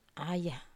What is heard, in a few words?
"aya", Wamesa